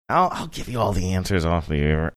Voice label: saying ill give you all answers off air echo reverb